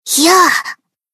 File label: Battle Shout